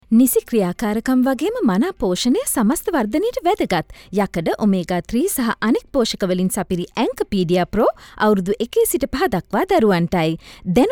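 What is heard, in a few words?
Sinhala female voice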